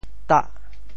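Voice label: "tah8", Teochew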